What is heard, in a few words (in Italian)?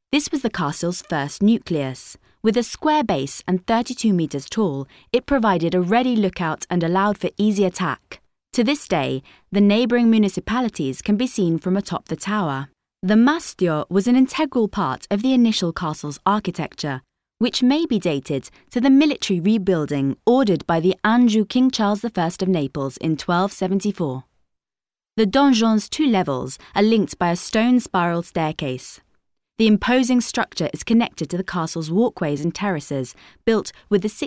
inglese uk